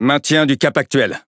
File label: Speech Pilots Torpedo Airborne, FR; World Of Warships